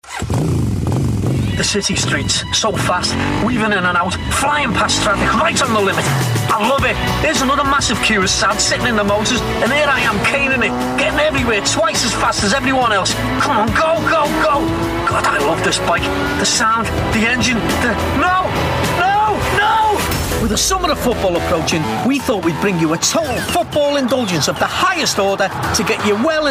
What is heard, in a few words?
Liverpudlian voice commercial